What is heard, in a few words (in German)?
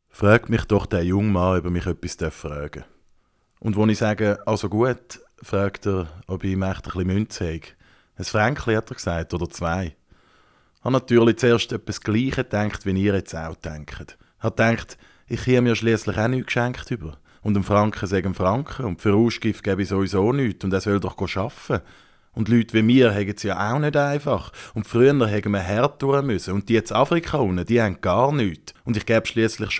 Zuridutsch laut